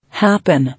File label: "Happen", English